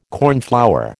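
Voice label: "cornflower", American English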